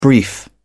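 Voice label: "brief", English, British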